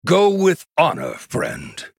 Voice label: male human greetings

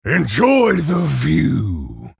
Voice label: male orc ogre greetings

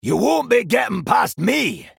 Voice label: male dwarf start

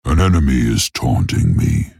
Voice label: male tauren error taunt

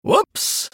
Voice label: male human oops

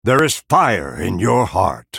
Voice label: male night elf well played

